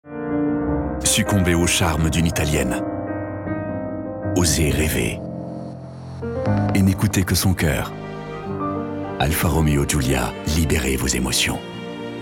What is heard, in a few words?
SPOT TV; Alfa-Romeo